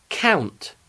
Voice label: "count", English